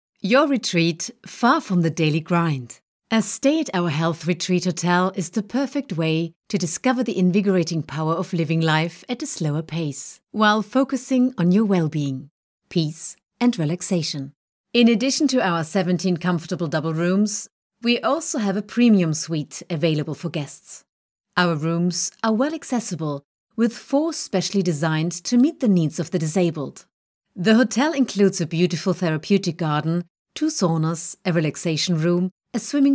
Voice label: English, european